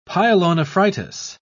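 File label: "pyelonephritis", British English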